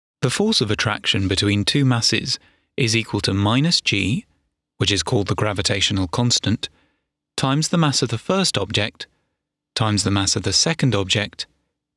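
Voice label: English elearning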